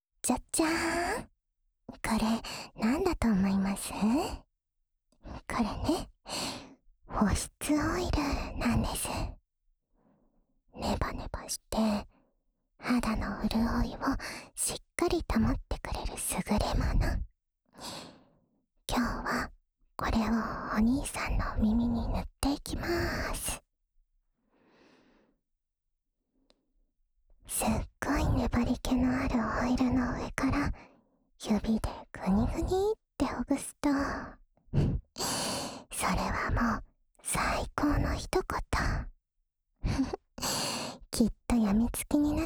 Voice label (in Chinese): 助眠用 耳部精油按摩（左耳）, ASMR